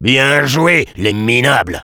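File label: Sniper jeers, french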